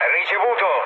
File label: chat, speech it; War Thunder